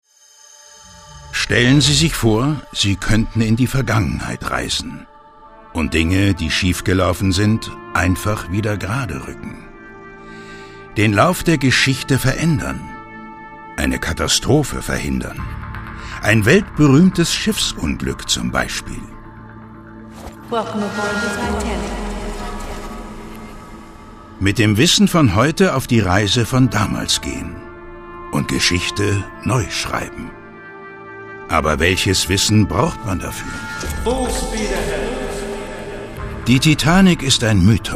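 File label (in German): commentary, Sanft; Titanic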